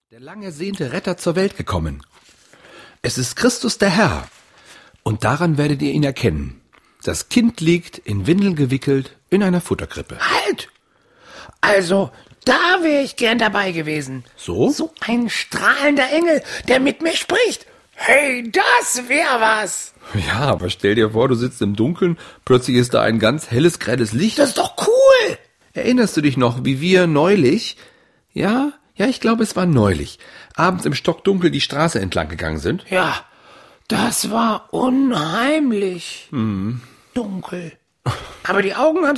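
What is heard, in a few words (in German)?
Hörspiel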